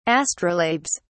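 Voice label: "astrolabes", British English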